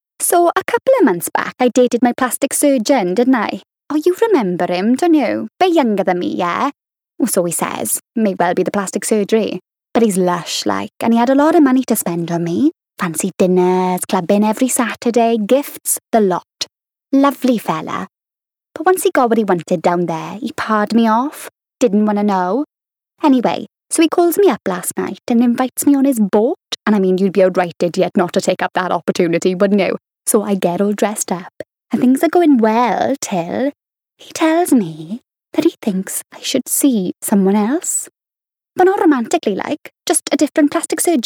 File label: Character